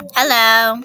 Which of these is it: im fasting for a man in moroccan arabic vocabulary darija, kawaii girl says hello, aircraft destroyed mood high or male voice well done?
kawaii girl says hello